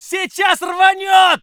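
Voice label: voice soviet infantry; Red Orchestra 41-45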